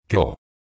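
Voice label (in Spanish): "cro", Spanish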